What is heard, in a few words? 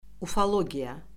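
"ufologiya", Azerbaijani; Orfoqrafiya Lüğəti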